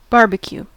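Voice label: "barbecue", US English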